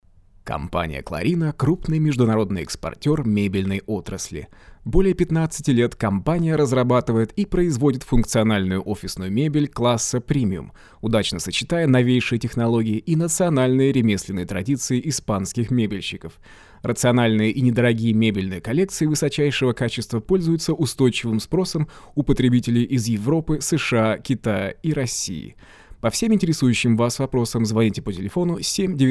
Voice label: Russian commercial

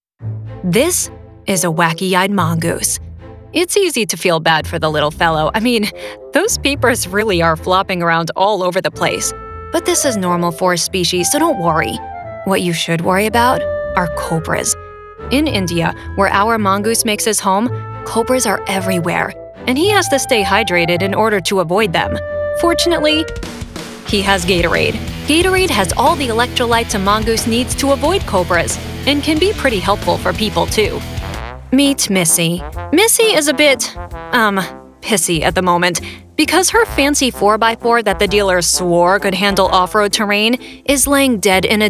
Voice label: EXPLAINER

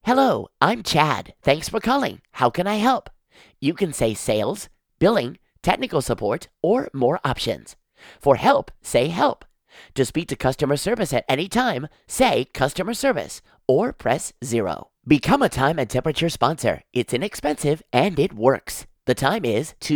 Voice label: IVR Phone

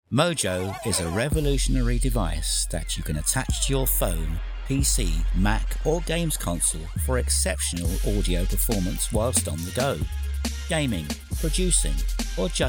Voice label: Technology commercial

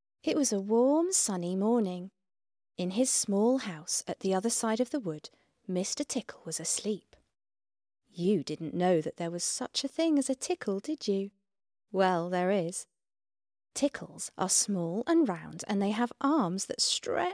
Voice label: Child Audio Book

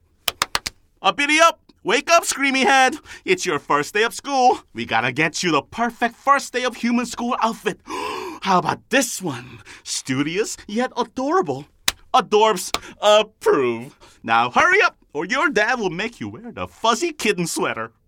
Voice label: American Character

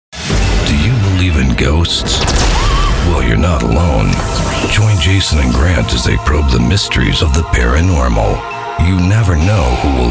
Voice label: TV Promo

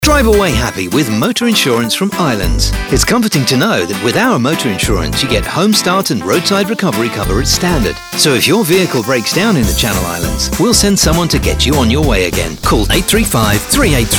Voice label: ad; Islands 20s; JSY

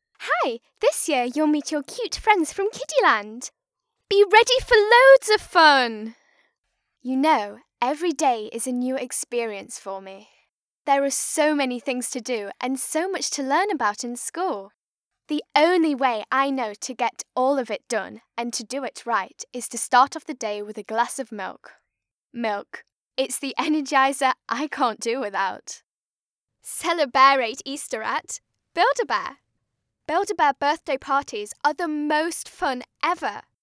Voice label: Child Voice

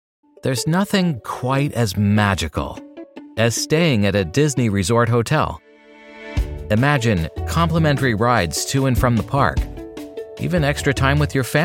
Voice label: Conversational

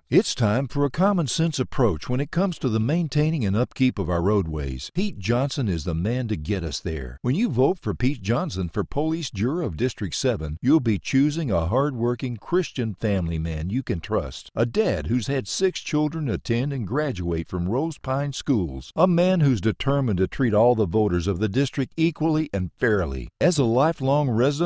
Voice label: Political Ad

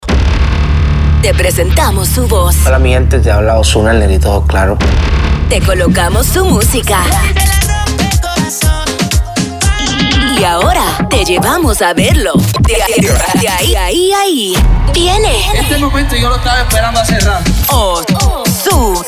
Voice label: CONCERT PROMO SPANISH

